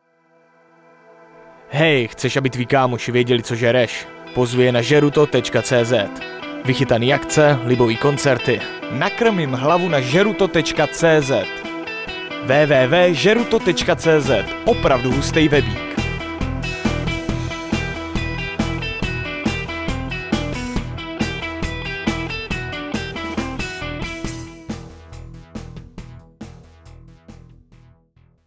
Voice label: spot